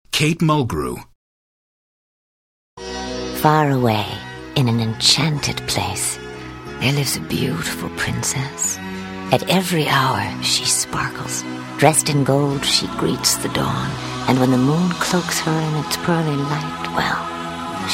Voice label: commercial; Innovative LA